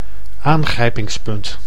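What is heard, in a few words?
"aangrijpingspunt", Dutch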